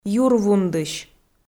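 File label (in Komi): "юр вундысь" Komi, female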